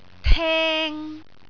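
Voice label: "teng3", Cantonese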